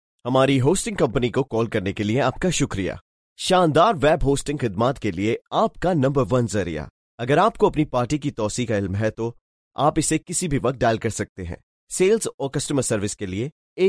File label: urdu phone ivr